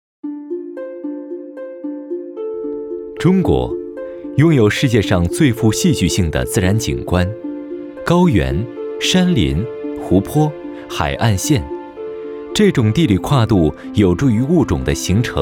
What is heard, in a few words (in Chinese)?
男-专题; 舌尖